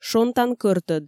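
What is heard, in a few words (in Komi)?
шонтан кӧртӧд, Komi, female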